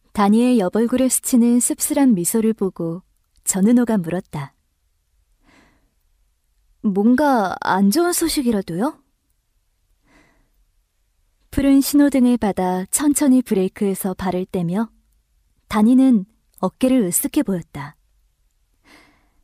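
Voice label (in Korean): 여성 (Female) 광고 나레이션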